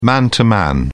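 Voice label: "man to man", English, uk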